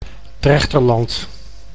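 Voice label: "Drechterland", Dutch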